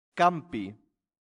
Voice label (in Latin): "campi", latin; santillana